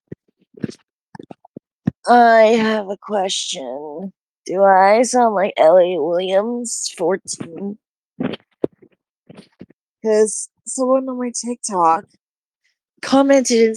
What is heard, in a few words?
voice message; Discord; Outcast Gaming